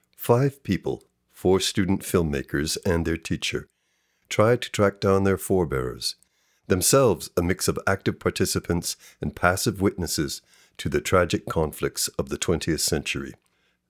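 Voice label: intro narrateur en, narration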